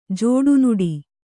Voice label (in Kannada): "ಜಡಿನುಡಿ", Kannada